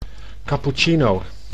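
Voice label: "cappucino", Dutch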